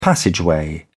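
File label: "passageway", British English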